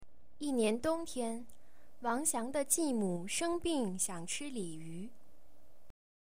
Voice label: Mandarin Chinese